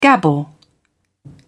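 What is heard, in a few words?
"gabble", British English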